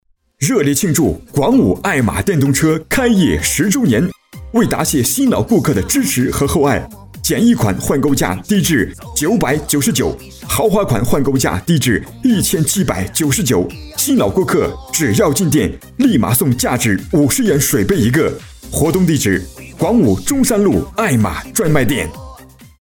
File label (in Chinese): 男 促销 电动车